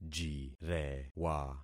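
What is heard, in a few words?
"jirewa", concatenated syllables; Affixing experiment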